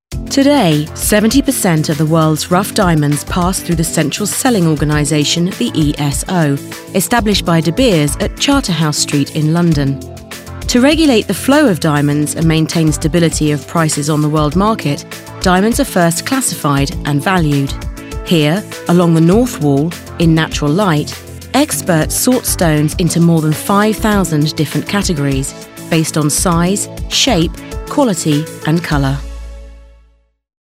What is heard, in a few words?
CORPORATE VIDEO